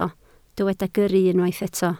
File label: "gyrru", Welsh